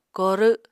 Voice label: "korw", Apatani